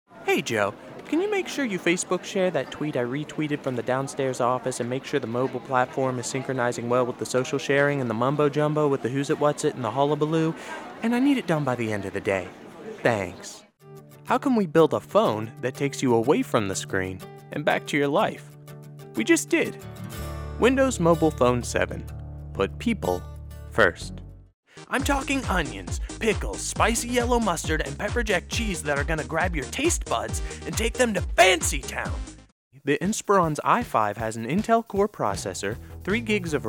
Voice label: voiceover